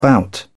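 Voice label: "bout", British English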